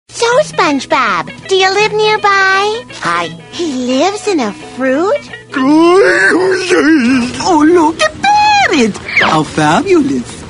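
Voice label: character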